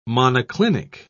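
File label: "monoclinic", English, British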